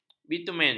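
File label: "bitumen", Malay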